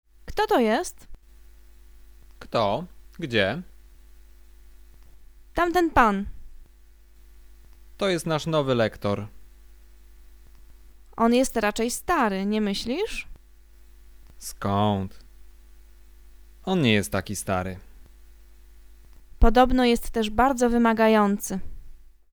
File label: conversation; lektorek; firstyear